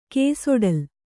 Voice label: "ಕೇಸೊಡಲ", Kannada

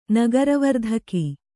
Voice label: "ನಗರವರ್ಧಕಿ", Kannada

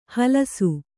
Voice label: "ಹಲಸು", Kannada

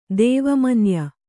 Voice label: "ದೇವಮನ್ಯ", Kannada

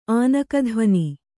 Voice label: "ಆನಕಧ್ವಾನ", Kannada

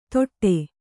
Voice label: "ತೊಟ್ಟೆ", Kannada

